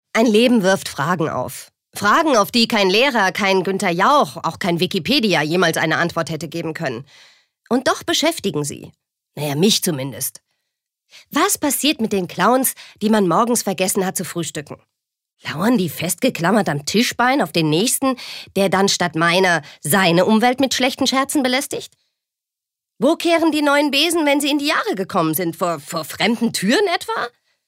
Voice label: Schauspiel Dynamisch

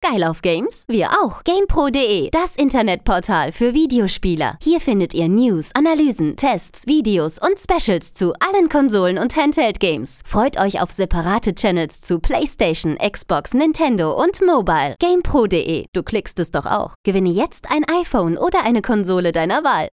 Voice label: Dynamisch

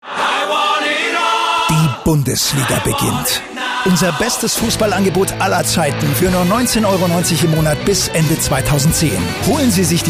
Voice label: Werbung Freundlich, voices; Sky

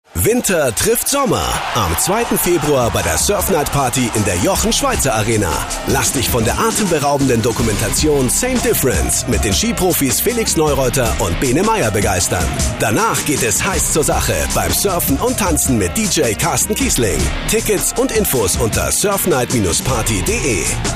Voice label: Dynamisch; Jochen Schweizer Arena

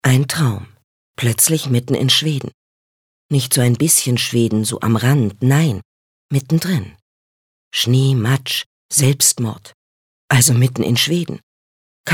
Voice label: Schauspiel Neutral

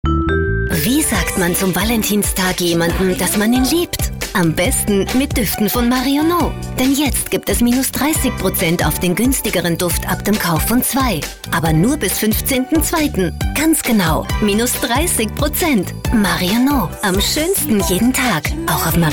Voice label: Fremdtake Freundlich

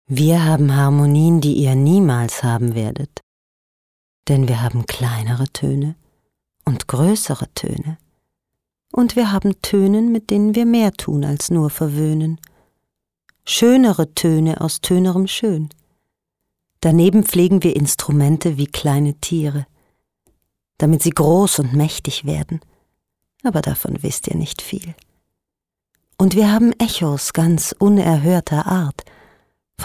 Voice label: Schauspiel Sinnlich

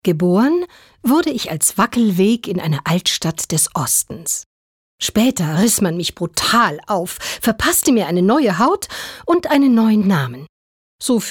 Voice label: Schauspiel Freundlich

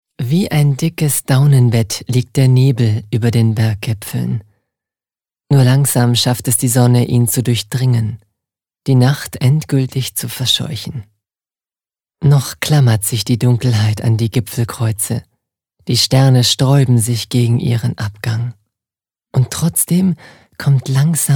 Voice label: Schauspiel Variabel

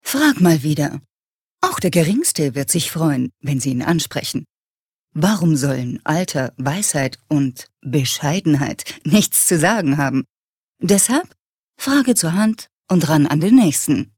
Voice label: Werbung Cool

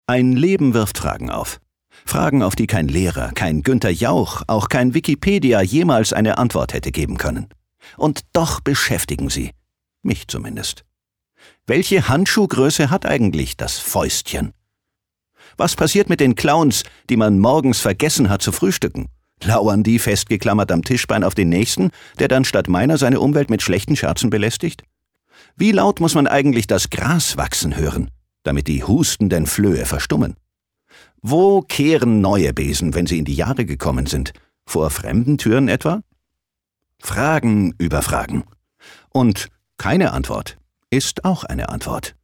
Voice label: Schauspiel, Neutral

